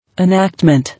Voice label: "Enactment", English